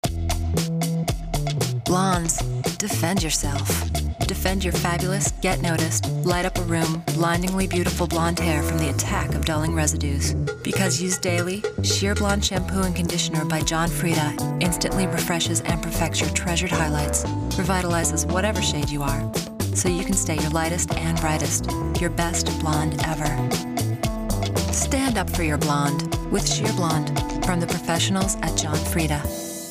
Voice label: vo reel